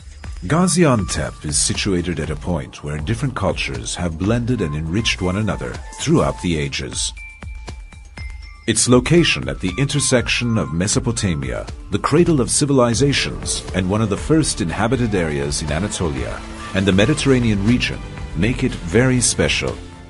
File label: city promotion documentary